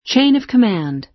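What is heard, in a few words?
"chain of command", American English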